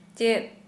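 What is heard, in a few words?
what, Santali, female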